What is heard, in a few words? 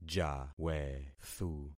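"jawethu", concatenated syllables; Affixing experiment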